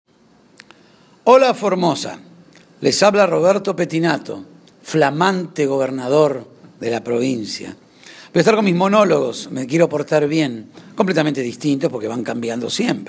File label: Spot; Formosa